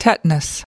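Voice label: "tetanus", US English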